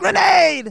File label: "GRENADE", Speech; Soul Man